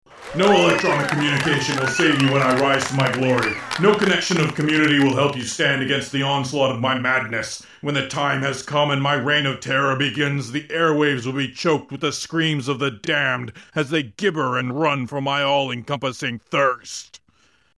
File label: phone rant